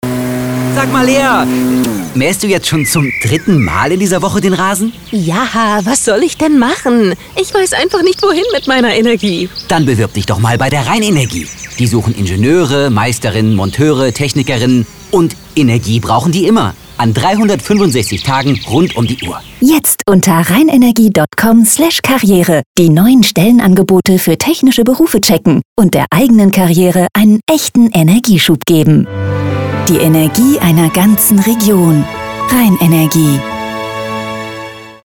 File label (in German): Spot; Rheinenergie; LOFT VOICES